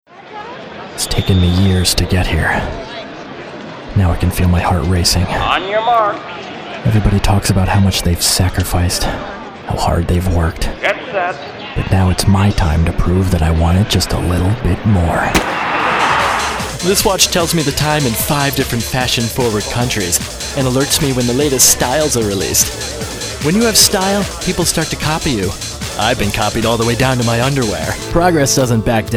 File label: Commercial